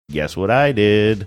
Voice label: saying guess what i did sing songy

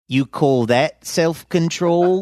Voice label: you call that self control australian

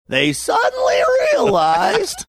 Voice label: funny; they suddenly realized

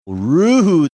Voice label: drawn out exaggerated "rue"

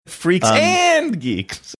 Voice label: saying freaks and geeks funny

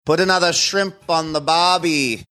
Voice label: saying put another shrimp on barby australian

